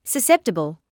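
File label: "susceptible", British English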